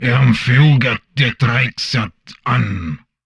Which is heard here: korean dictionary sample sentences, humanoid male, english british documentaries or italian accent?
humanoid male